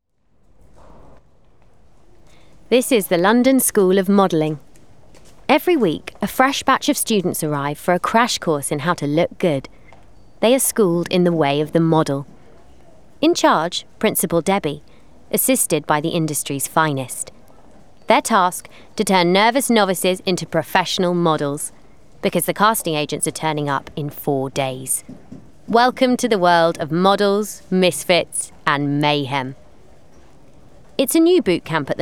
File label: Narrative